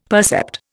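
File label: "percept", Indian English; Dictionary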